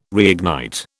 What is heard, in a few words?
"reignite", British